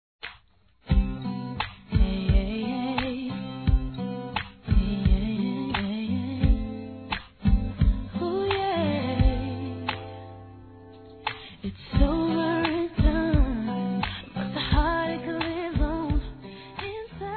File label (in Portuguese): Aniversário Mãe Feminino; Aniversários; Aniv Familiar